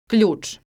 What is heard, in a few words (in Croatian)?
"Kljuc", croatian